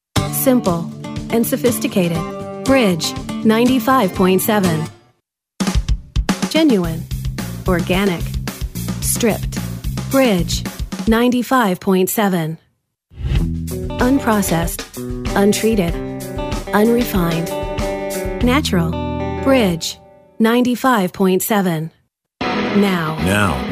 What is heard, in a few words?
Radio Station Imaging